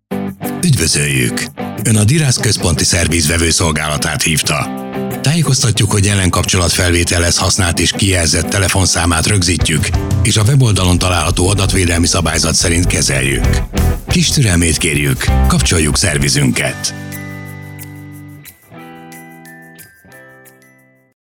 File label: ivr